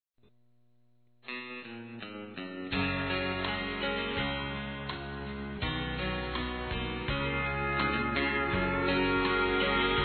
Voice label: CASAMENTO P TERCEIRO F; Aniversários; ANIVERSARIO romantico; fem

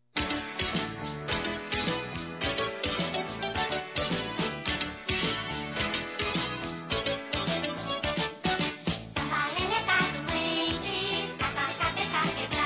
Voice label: Filho Criança Feminino; Aniversários; Aniv Familiar

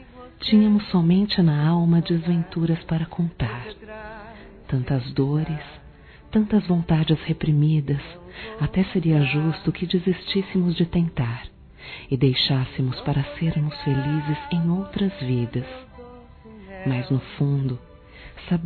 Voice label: Você me fez Renascer f, Telemensagens Amor Fem

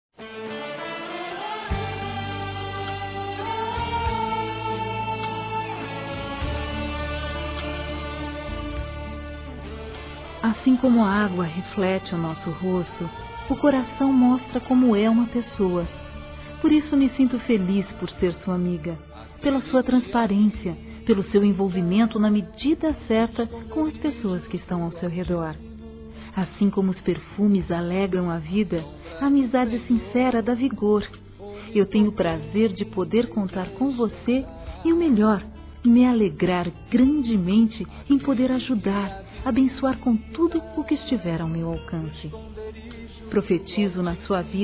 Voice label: HOMENAGEM GERAL F, Telemensagens Gospel fem; Telemensagens 2017

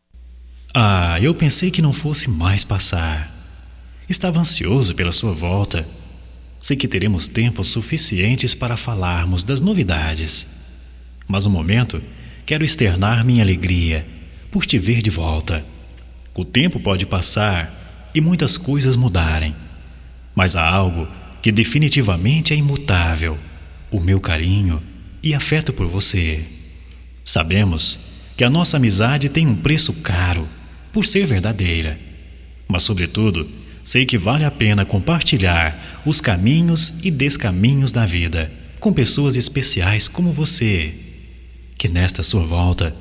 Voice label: BOAS VINDAS GERAL M, Só Voz, masc; Telemensagens; Telemensagens 2017

